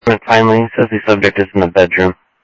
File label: scanner audio, Sheriff